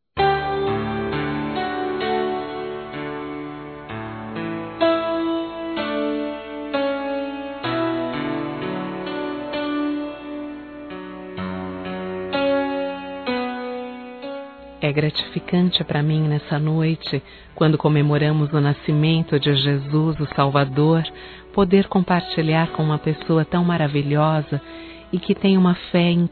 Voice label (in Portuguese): Natal Irma Feminino; Telemensagens; Natal e Ano novo